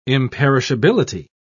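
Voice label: "imperishability", British English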